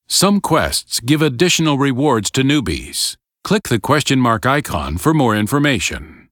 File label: tutorial voice; lineage2